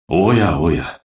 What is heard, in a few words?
desperation power